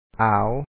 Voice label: "aaw", Lao; ອາວ